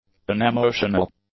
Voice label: "unemotional", English; adjectives

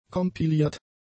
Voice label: "compiliert", German; adjectives

